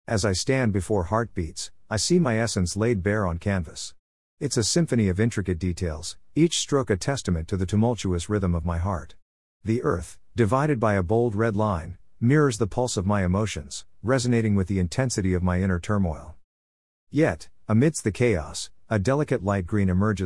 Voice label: audio description in english